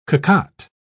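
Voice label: "cocotte", English, British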